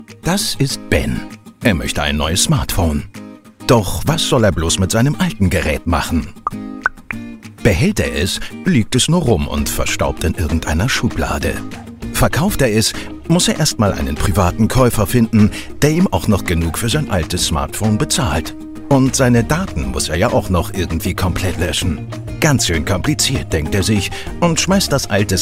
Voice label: southern us; Samsung